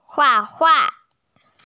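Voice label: "hua4hua4" Mandarin Chinese, female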